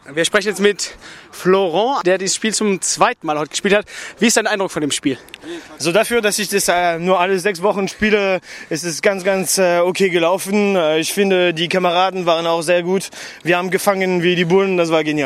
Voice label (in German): Kickball Kommentar